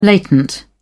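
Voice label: "latent", British English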